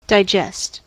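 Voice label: "digest" verb, US English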